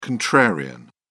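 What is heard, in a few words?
"contrarian", British English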